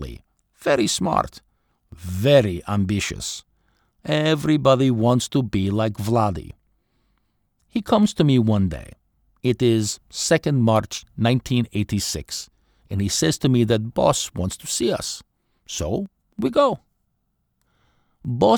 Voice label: Russian Accent